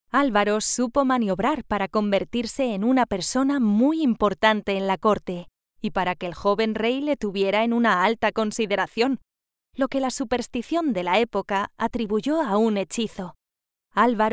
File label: locutora espanola spanish voiceover, spanish female voice over